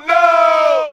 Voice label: "no" group of men; expletives